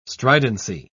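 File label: "stridency", English, British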